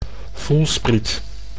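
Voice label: "voelspriet", Dutch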